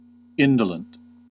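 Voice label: "indolent", US English; pronunciations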